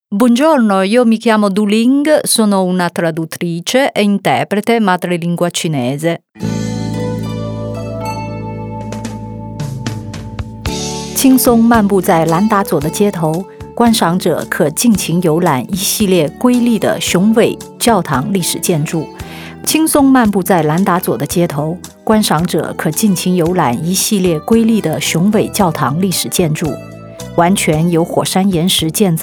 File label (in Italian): audio guida turistica